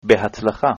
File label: good luck, hebrew